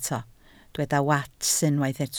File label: "wats", Welsh